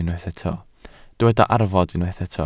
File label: "arfod", Welsh